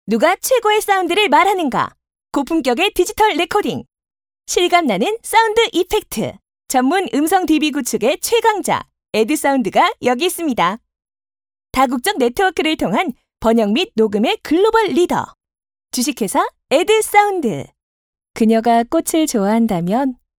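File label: Korean female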